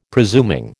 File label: "presuming", American